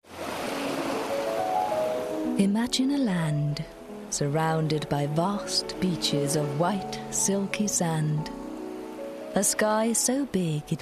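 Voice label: Danish English; Danish, Scandinavian, Male, Home Studio, 20s-30s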